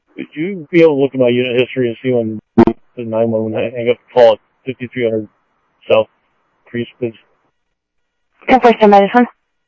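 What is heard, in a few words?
Sheriff East County, scanner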